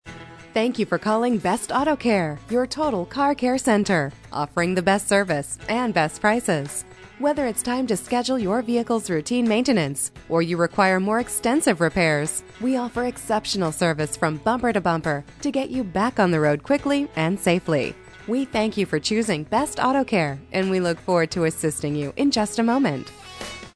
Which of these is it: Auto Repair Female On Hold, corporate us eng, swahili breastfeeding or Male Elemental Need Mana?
Auto Repair Female On Hold